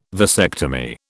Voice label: "vasectomy", British

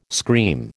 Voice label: "scream", American

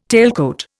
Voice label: "tailcoat", Indian

